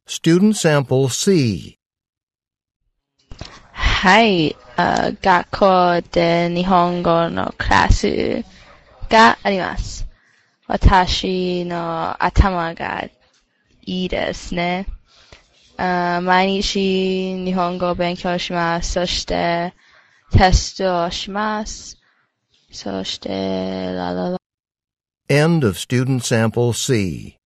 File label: japanese conversation